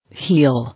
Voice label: "Heal", English